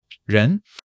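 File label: "人", Mandarin Chinese; HSK1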